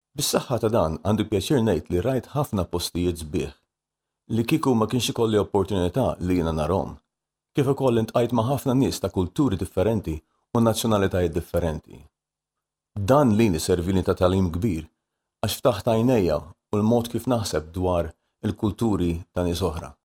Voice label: Maltese